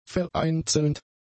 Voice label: "vereinzelnd", German; adjectives